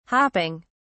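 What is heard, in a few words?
"hopping", British English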